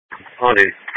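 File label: Police Patrol Dispatch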